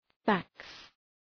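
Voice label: "fax", English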